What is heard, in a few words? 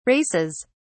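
"races", English, uk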